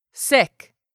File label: "SICK", english; 3000 words